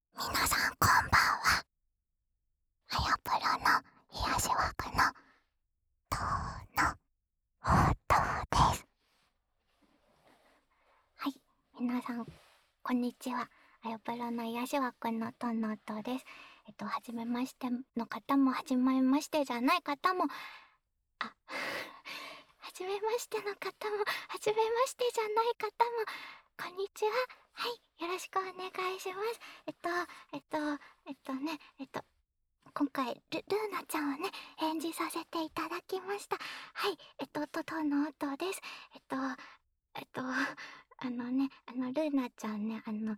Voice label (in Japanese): フリートーク